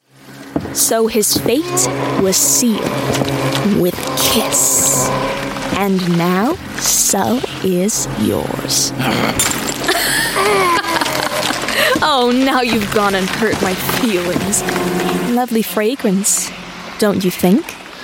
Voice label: youthful female character

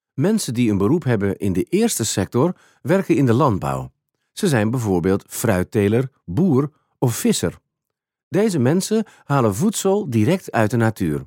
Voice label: dutch voiceover elearning

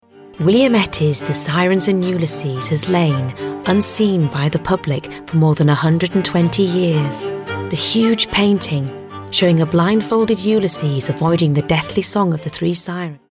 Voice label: elearning

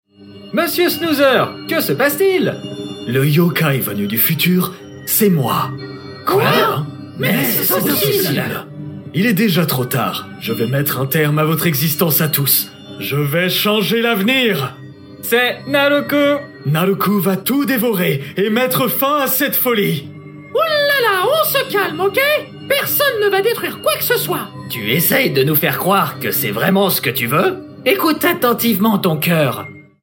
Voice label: french male child-oriented projects fr

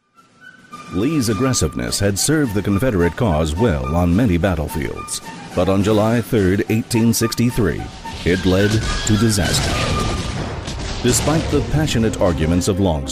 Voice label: american narration

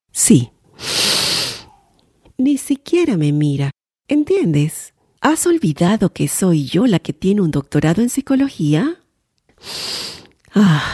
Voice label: Latin Spanish voice, character

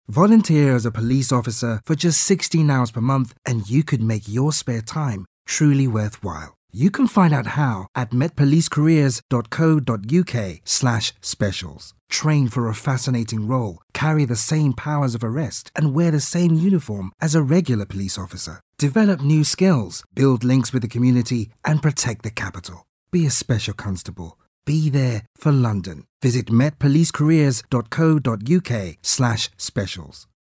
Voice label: british african commercial; spotify

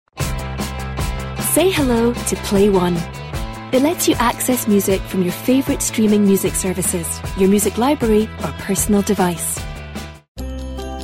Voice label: commercial

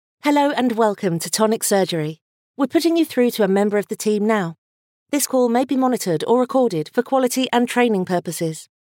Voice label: telephone

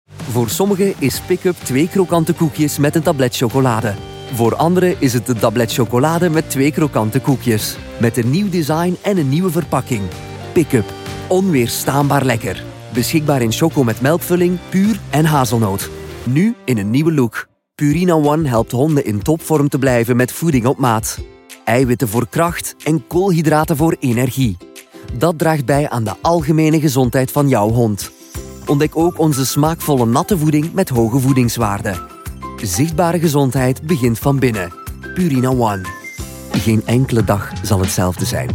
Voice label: Flemish voiceover commercial

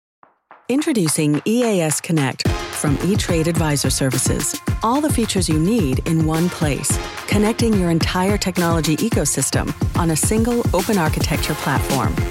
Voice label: American voice corporate

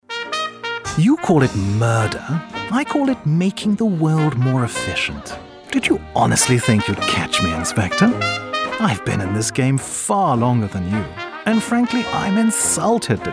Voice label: character, British Voiceover

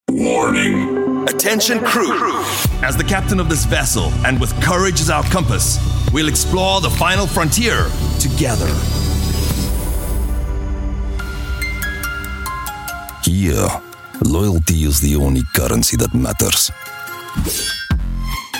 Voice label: South African voiceovers character

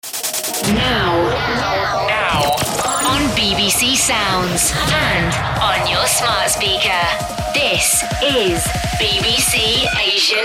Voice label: radio imaging

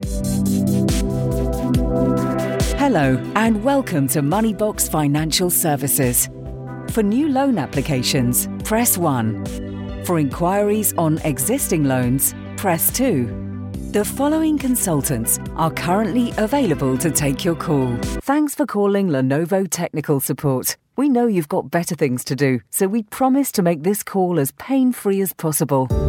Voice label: telephony IVR and on hold

